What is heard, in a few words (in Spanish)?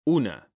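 "una", Spanish; mekanta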